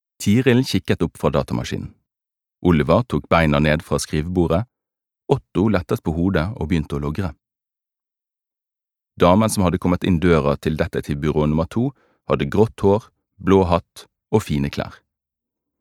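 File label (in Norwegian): Norwegian fortellende bergensk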